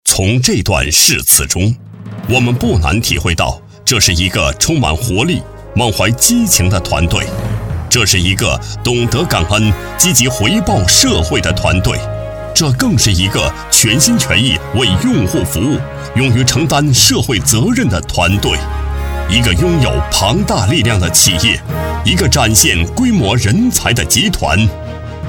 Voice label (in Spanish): Locutor varón chino